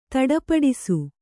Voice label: "ಧಡಪಡಿಸು", Kannada